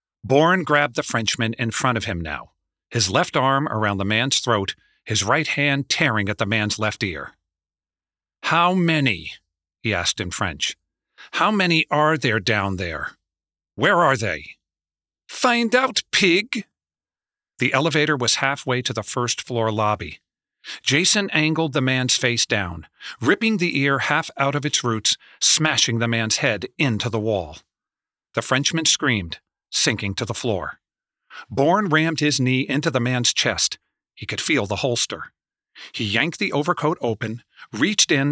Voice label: Fiction 3rd Person Thriller